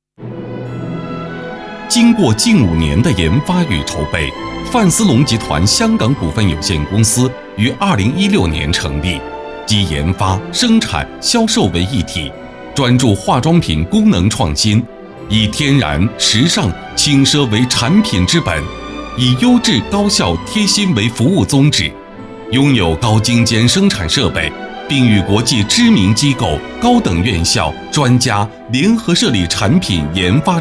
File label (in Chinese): 范思隆企业宣传